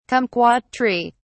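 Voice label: "kumquat tree", British English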